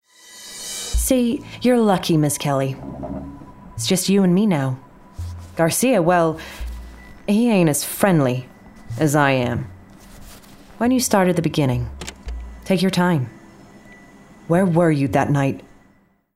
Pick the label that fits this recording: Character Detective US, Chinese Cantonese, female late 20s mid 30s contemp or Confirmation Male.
Character Detective US